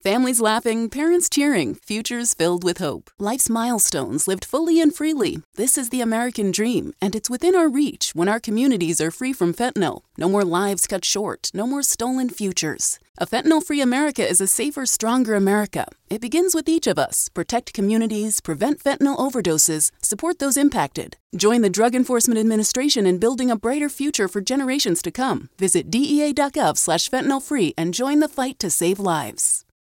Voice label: Radio Dry